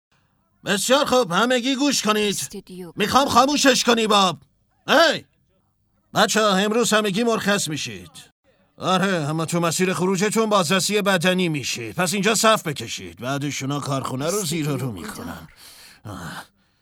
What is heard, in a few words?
dub